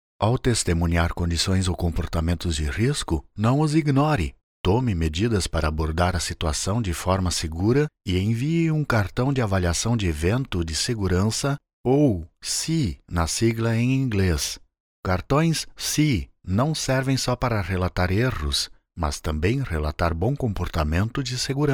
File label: portuguese brazilian medical narration